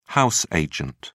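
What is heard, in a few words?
"house agent", British English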